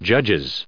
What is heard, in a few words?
"judges", en us